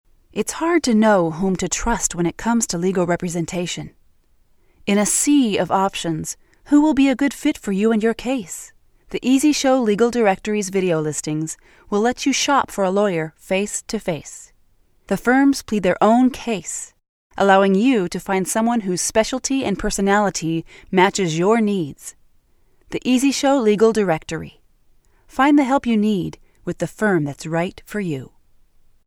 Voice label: voiceovers